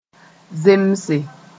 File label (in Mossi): "zemse", moore